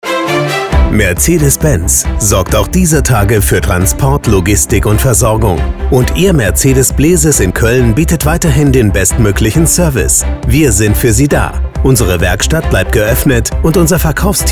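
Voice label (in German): Sprecher für Radiowerbung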